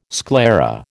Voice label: "sclera", American